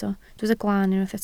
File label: "glaan", Welsh